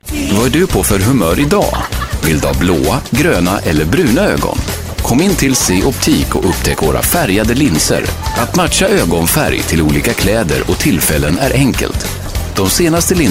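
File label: Swedish, General; GoLocalise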